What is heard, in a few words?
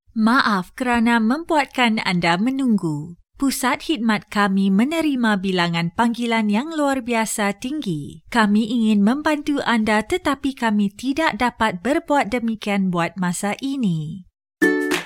Malay, Female